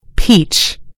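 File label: "peach", British English; gcompris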